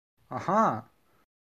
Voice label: Aha Sound Effect Man; idea